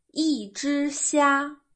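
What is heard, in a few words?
"crevette", French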